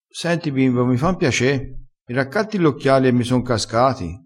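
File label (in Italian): "bimbo", vocabolario dialettale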